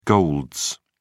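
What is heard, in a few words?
"golds", British English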